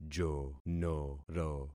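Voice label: "jonoro", concatenated syllables; Affixing experiment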